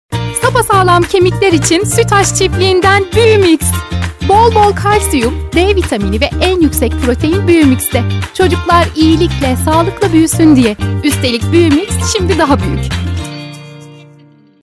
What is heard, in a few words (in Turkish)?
reklam; buyumix